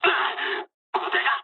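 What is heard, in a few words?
Japanese damaged pilot mood low; War Thunder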